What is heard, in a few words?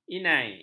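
"inai", Malay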